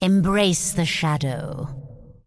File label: Undead Female Magic Farewell; NPC